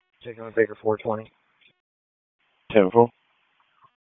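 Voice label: Police Dispatch; Raleigh Police Southeast District Disp; wake; p25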